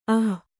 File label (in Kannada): "ಅಃ", kannada